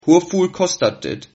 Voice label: how much, Sylt Frisian

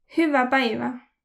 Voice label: have a nice day, voro

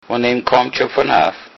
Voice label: where from, low saxon

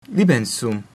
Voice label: "meet", Latin

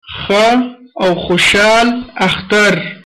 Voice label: easter, pashto

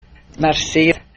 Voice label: thanks michif

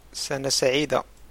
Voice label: christmas, arabic

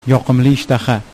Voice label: bon appetit uzbek

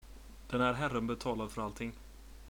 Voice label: pay, swedish

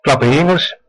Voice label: do you speak english, West Flemish; Klappe ghy Ingels?

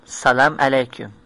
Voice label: hello Azeri

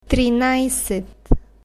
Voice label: "trinajset", Bulgarian numbers

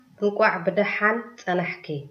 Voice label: welcome, tigrinya

